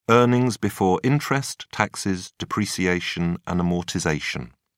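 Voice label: "earnings before interest taxes depreciation and amortization", British English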